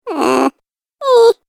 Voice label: Cartoon Game Voice Bored Sounds